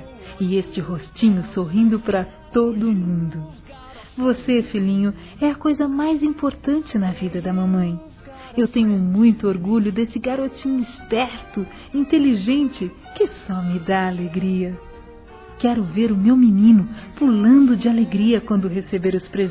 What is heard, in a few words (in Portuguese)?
Filho Criança Feminino, Telemensagens Aniversário; Aniversários; Aniv Familiar